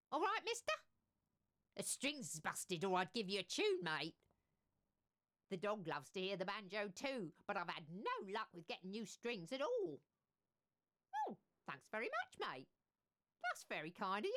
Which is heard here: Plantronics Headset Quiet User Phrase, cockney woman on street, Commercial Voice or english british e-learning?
cockney woman on street